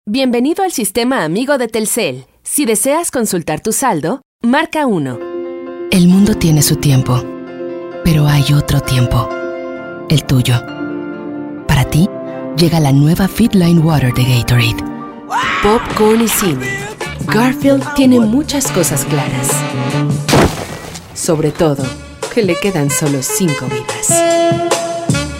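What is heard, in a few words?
latam mexican female narration; voice demos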